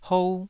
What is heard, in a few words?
"hou2", taiwanese syllable